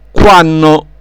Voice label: "quanno", dialetto; quanno quando; quàrche qualche

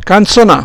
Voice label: "canzona", dialetto; prendere in giro

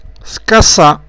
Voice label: "scassa", dialetto; scassà cancellare; scacchià

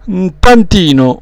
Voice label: "ntantino", dialetto; un poco

